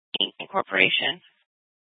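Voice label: police dispatch, scanner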